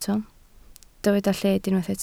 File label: "lled", Welsh